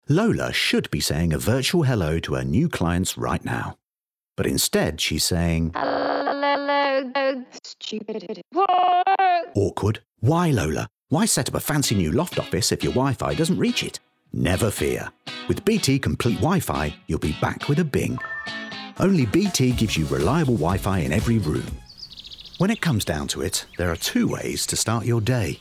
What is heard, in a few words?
Commercials Montage, 30/40's Neutral/East Midlands Likeable/Comedic/Warm